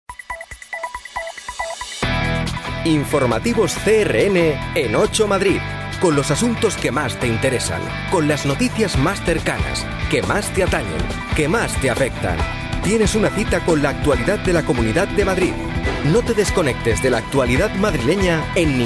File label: spanish tv shows